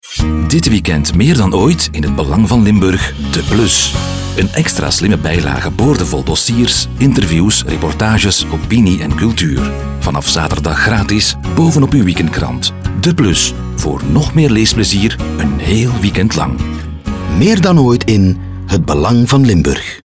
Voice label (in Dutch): commercial Radio; Vlaamse voice-over; Radiospot; Het Belang Van Limburg